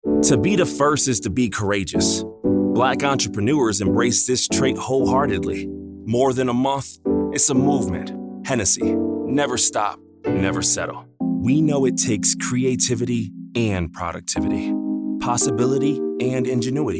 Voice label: Commercial